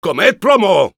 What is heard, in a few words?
battle cry, es